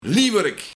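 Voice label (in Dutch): "Liwerik", Luik, Leeuwerik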